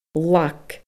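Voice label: "lag", Scottish Gaelic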